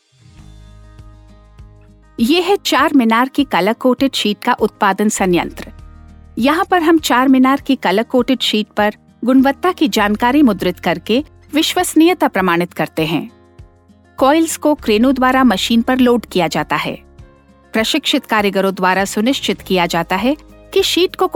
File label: Corporate Hindi; Charminar